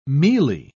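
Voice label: "mealie", British English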